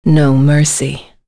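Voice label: Vox Skill, voices heroes English; Tanya; kings raid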